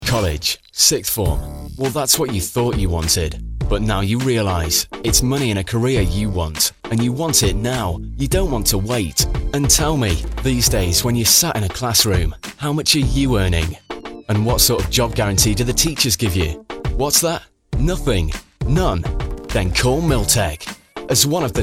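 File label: native Northern accent, Male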